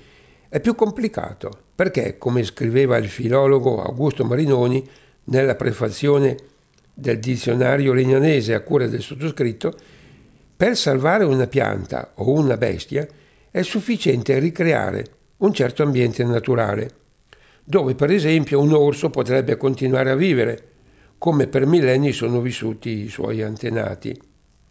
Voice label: legnano dialetto

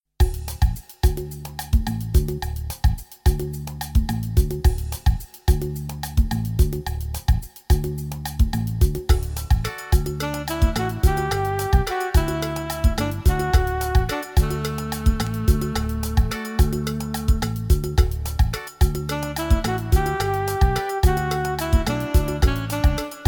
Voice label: dialetto

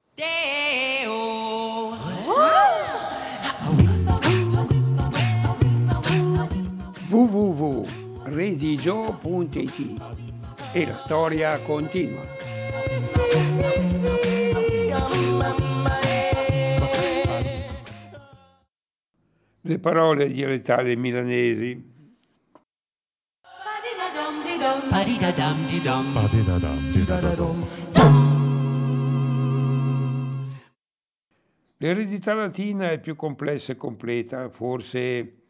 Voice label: dialetto milanese

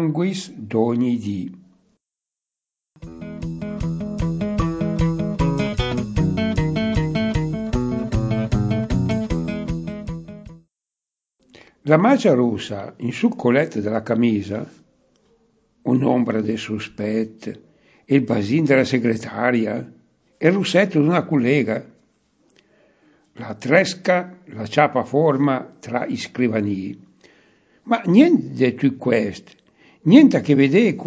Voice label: racconti dialetto

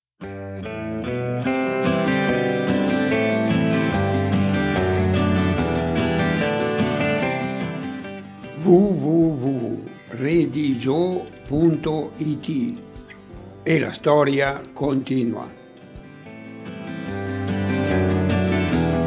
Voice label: storiella dialetto

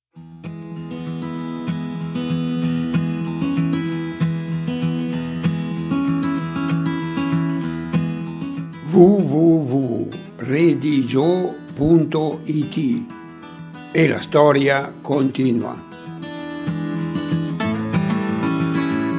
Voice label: santi dialetto

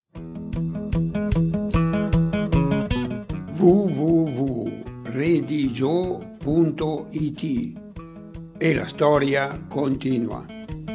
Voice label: racconti Legnano dialetto

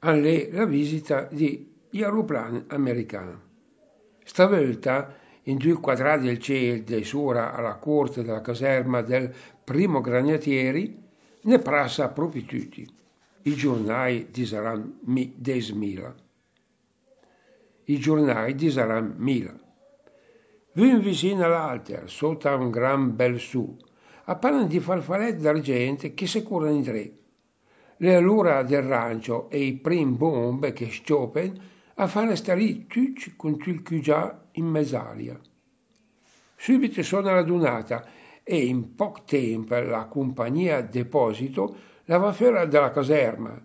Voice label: dialetto roma

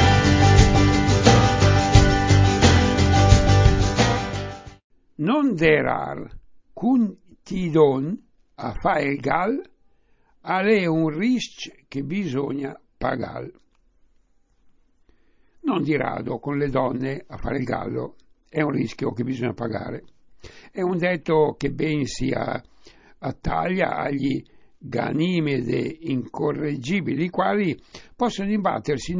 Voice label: saggezza dialetto

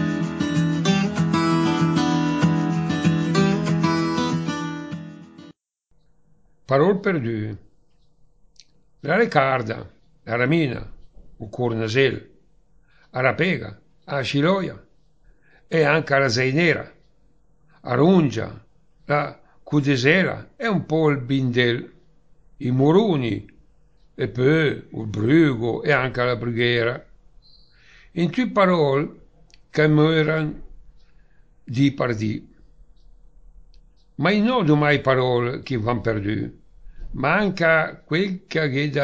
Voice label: Poesie dialetto

